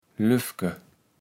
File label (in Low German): "Löfke", kaevels platt